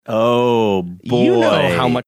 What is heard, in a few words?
drawn out oh boy